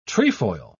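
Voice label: "trefoil", British English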